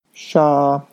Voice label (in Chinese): "sha1", Chinese; 沙